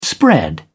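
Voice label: "spread out", English, British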